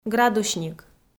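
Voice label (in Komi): "градусник", Komi, female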